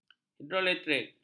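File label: "hidroelektrik", Malay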